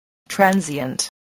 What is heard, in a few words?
"transient", voice